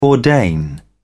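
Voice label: "ordain", English, British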